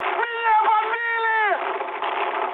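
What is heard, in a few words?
Russian aircraft destroyed mood high, speech; War Thunder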